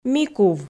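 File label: "микув", Komi, female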